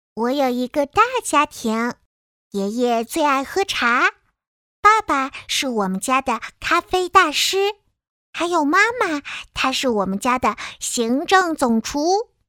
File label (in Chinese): 角色女孩